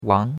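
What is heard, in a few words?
"wang2", Mandarin Chinese; Cours-de-Chinois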